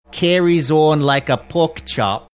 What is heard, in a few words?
saying carries on like a pork chop australian accent